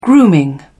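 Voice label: "grooming", British English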